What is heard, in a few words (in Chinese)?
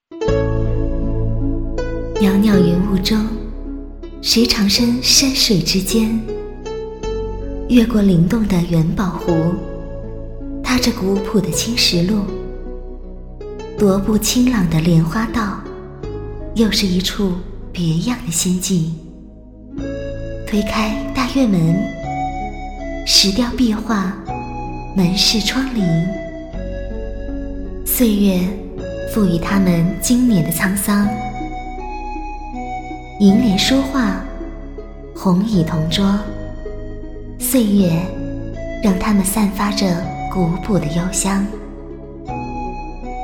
女 角色 七仙女, 国语女声配音